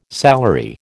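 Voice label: "salary", American English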